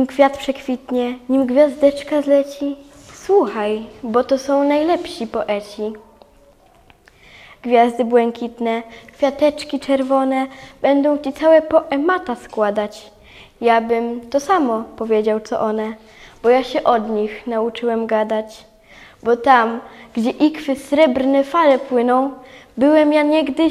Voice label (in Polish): wiersz glos